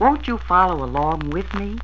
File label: "won't you follow along with me", female; questions